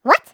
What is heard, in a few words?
What Mouse Squirrel Cartoon